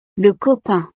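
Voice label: "le copain", French; familia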